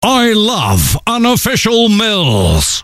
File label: voiceover ringtone